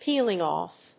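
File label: "peeling off", English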